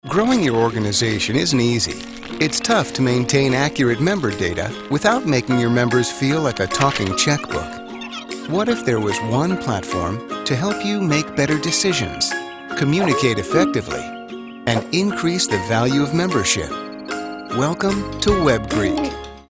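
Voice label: American corporate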